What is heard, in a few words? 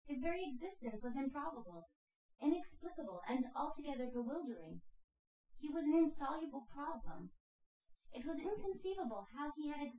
female synthconv 250ms 5cm